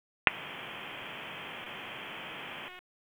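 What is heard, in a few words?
Fire Department HQ Dispatch, p25; RFD; wake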